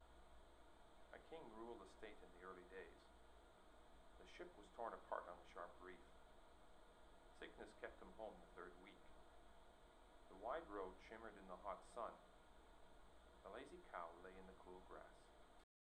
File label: male voice voice passthrough